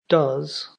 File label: "does", ulster; jetpackLearningPlatform; story-arranger